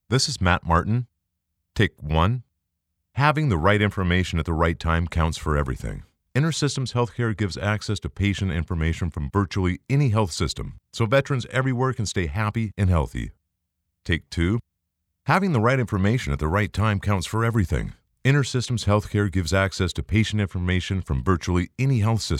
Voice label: Announcer